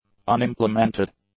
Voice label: "unimplemented", english; adjectives